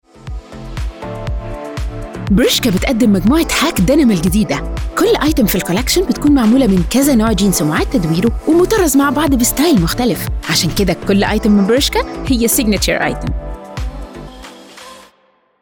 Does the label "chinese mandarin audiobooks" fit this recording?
No